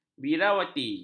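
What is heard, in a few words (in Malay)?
"biarawati", Malay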